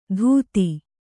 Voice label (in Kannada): "ಧೂತಿ", Kannada